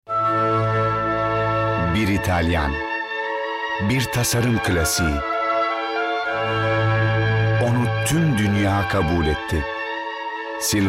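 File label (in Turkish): turkish male commercial; voice demos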